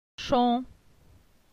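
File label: "Chon", French; phonetique; la syllabe on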